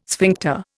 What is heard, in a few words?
"sphincter", Indian